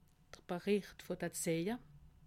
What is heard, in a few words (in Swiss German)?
"bericht", alsace